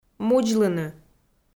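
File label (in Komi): "мудзлыны", Komi, female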